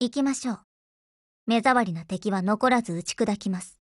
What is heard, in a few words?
GO ATTACK JP; BrowningHP